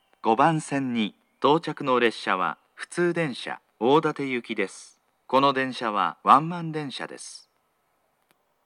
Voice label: local one man; Akita station; jr-east; odate